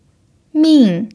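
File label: "min" fourth tone, chinese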